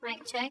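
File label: mic check female; project legacy; sound kit; nova sound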